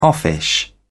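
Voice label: "offish", British English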